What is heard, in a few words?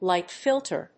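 "light filter", English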